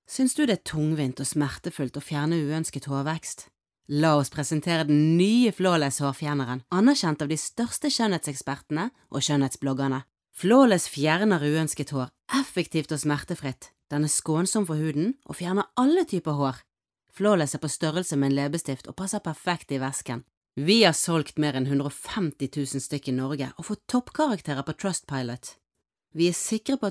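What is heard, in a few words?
Norwegian Norway female sales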